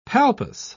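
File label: "palpus", British English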